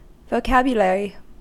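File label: "vocabulary", American English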